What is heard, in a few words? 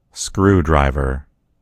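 "screwdriver", American English; gcompris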